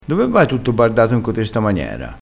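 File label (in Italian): "bardato", dialettale crespinese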